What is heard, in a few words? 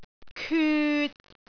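"kyut3", Cantonese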